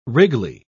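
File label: "wriggly", English, British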